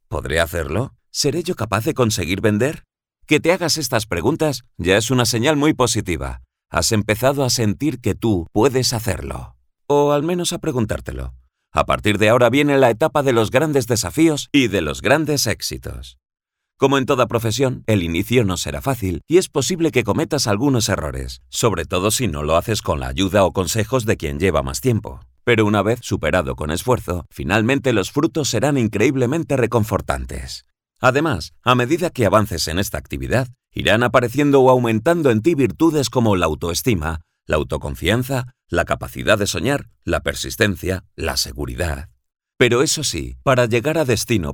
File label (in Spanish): E-learning del seguro; Instituto E-learning del seguro; Audiosegur - Campus virtual para los distribuidores de seguros; PÍLDORAS AUDIBLES